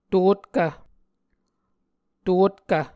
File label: "totkv", muscogee